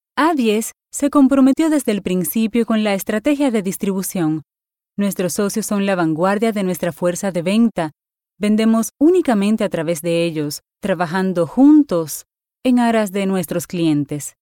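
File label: Latin American Spanish, Corporate; GoLocalise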